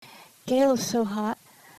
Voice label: voice changer